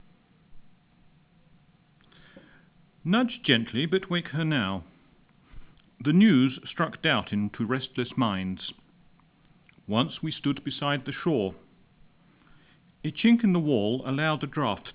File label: british, open speech; uk